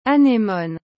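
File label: "anemone", French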